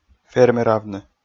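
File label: "фермеравны", Komi, male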